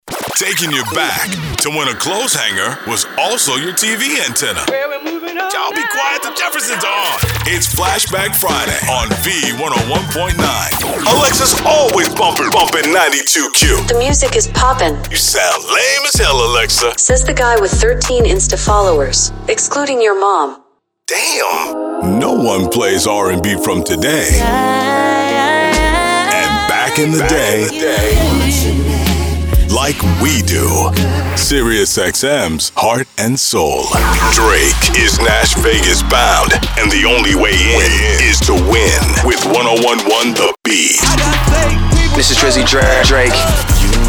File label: radio imaging; hollywood